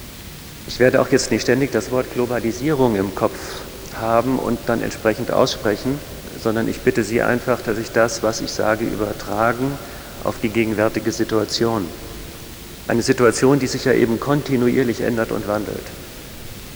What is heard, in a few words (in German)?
kassette text mit starkem rauschen vorher